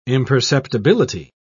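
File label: "imperceptibility", British English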